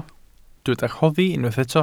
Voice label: "rhoddi", Welsh